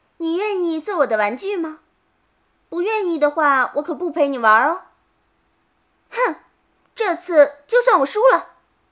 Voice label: 女 少女

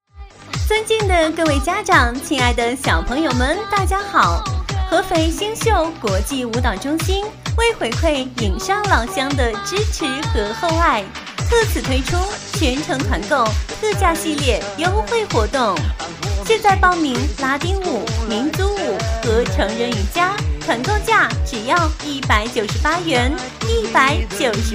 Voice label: 女 甜美; 舞蹈中心

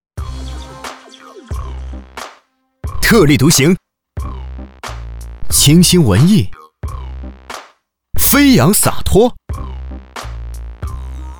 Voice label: 男 袜业（青春时尚）; 鹿人袜业

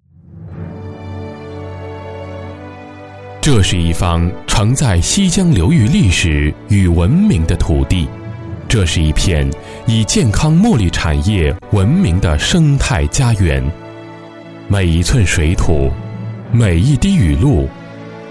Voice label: 男 医院 大气; 广西人民

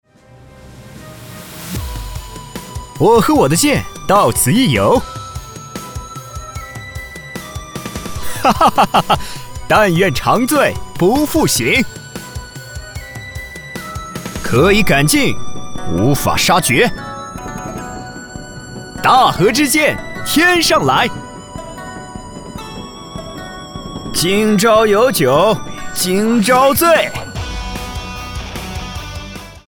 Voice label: 男 模仿; 模仿王者荣耀李白

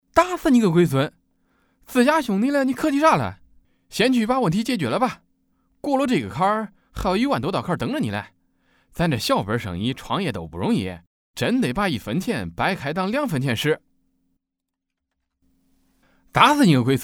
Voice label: 男 角色

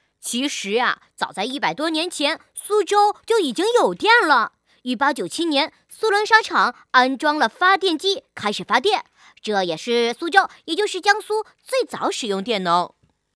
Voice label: 女 男童

